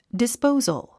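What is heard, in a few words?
"disposal", English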